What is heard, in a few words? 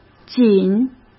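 "紧", Mandarin Chinese; chinese flashcards; hsk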